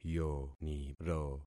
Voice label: "yoniro", concat syllables; Affixing experiment

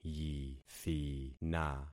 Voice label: "yithina", concat syllables; Affixing experiment

